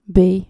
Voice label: "bay", dutch